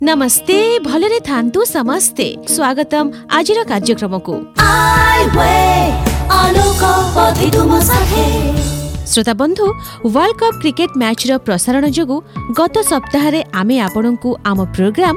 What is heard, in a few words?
FEMALE RJ